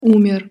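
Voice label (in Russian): "umer", Russian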